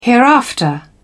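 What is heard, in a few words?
"hereafter", British English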